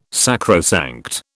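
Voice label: "sacrosanct", British English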